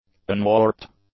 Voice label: "unwarped", english; adjectives